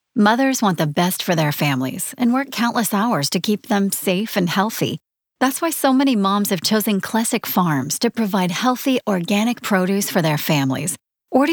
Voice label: Warm Mom Radio

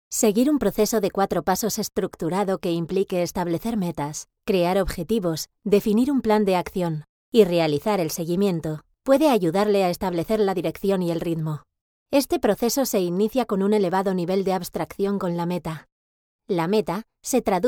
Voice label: E-LEARNING CORPORATE

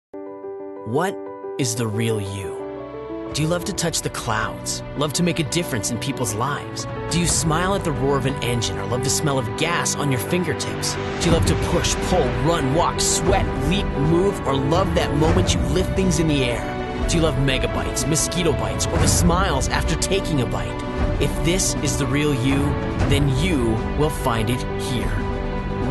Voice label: Voiceovers

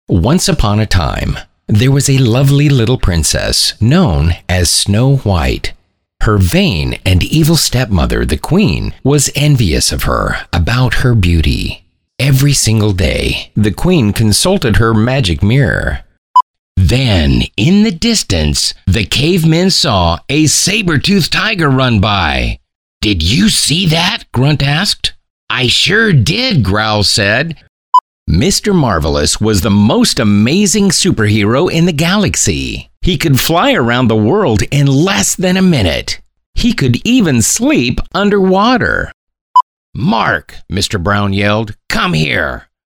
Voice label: Audiobook Children

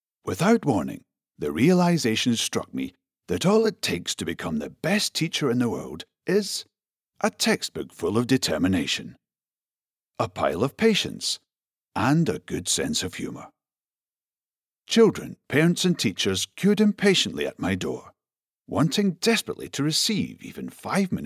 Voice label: Audiobook

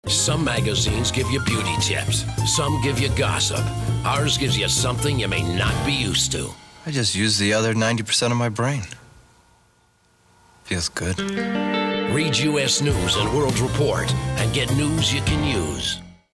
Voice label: us news

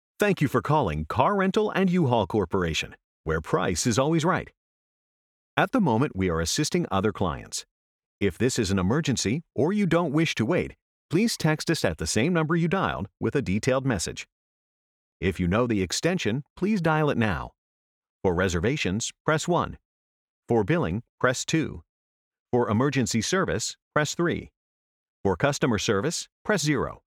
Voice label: On Hold IVR

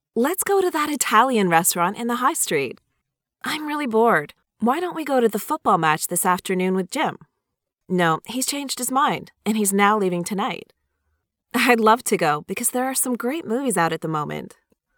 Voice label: conversational

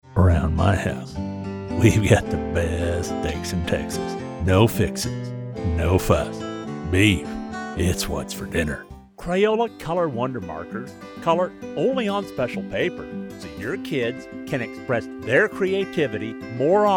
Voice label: commercial voices

